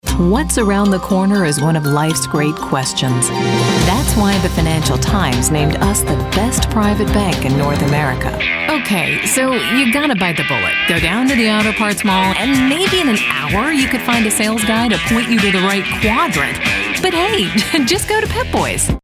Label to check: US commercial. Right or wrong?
Right